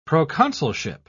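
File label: "proconsulship", English, British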